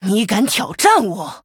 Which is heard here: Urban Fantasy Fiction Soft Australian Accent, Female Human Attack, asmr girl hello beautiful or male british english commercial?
Female Human Attack